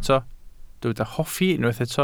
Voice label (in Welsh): "hoffi", Welsh